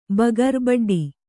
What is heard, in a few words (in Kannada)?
"ಬಗರ್ ಬಡ್ಡಿ", Kannada